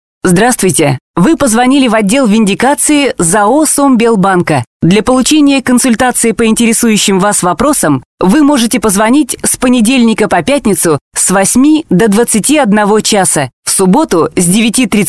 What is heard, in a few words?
IVR